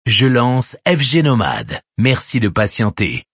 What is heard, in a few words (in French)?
lancement radio; fgnomade